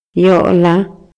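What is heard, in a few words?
Maya, male; PALABRAS CON DISTINTAS FUNCIONES; INALI; MayaYuc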